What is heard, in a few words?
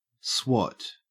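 "swot", Australian English; pronunciation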